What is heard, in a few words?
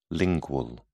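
"lingual", British English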